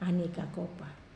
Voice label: "aniai kakopa", Wamesa

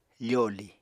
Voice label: "foli", Apatani

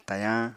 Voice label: "tayañ", apatani

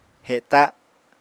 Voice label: "heta", apatani

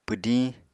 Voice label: "pwdiñ", Apatani

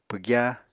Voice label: "pwgya", Apatani

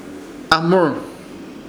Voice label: "AHMUR", Ishir Chamacoco; querer

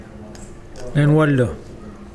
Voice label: "forest", Mokilese

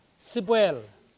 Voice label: "sipwel", Mokilese

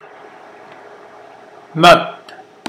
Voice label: fat, Mokilese

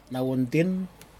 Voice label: "nauintin" bowels, Aneityum

